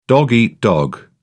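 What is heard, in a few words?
"dog eat dog", British English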